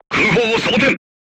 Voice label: voice message load HEAT, crew tanks japanese